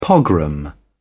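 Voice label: "pogrom", British English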